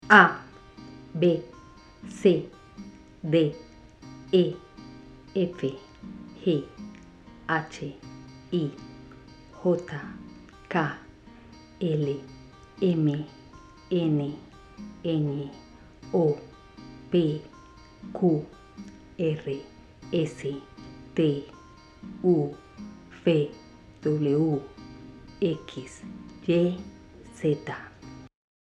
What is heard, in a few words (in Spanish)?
Alfabeto voz mujer